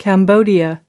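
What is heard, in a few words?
"Cambodia", English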